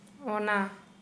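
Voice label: Santali, female, that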